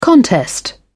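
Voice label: "contest", British English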